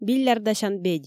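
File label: "биллярдасян бедь" Komi, female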